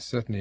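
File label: serQNi, Standard Southern British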